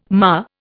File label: "mar", Indian